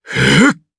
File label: Casting, jp; DarkKasel; kingsraid